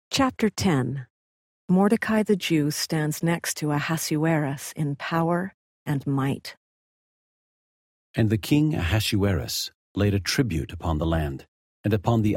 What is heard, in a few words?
male voice, eng; the old testament